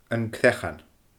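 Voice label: "An Creachan", Scottish Gaelic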